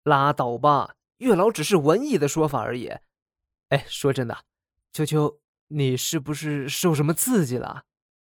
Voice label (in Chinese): 现代青年